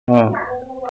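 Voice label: "de1", Mandarin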